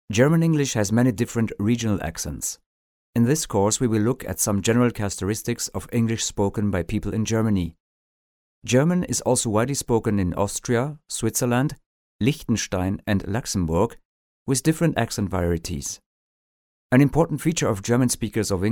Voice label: male german voice over talent english